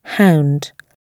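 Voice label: "hound", British English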